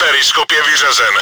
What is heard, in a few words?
surveying devices damaged, cz; Periskop je vyřazen.; Приборы наблюдения (перископ) повреждены.; Czech; World of Tanks